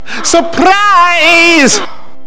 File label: surprise